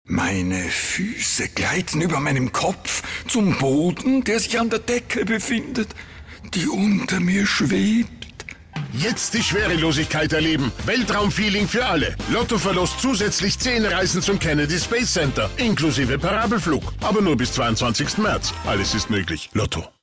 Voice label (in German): Hörfunk; Weltall